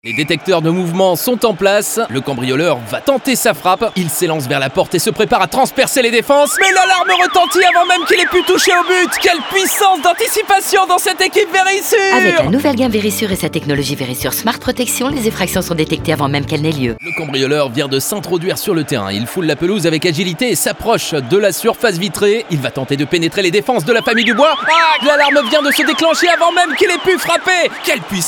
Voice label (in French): spots radio, demos voix; verisure